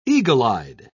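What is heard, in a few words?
"eagle-eyed", British English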